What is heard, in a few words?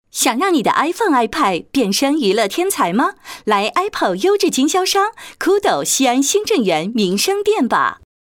commercial dry; flora